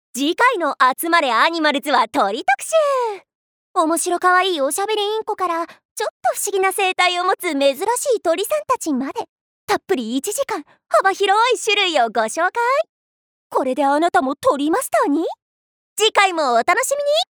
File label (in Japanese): ナレーション